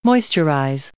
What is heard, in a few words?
"moisturize", English